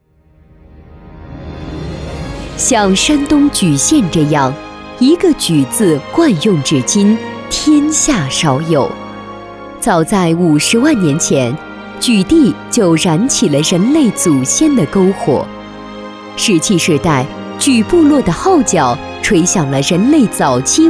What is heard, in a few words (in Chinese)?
专题 政府 大气; 莒县